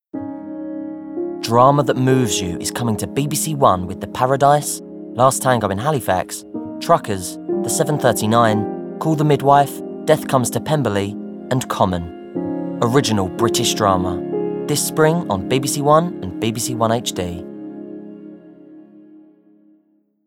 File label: Original British Drama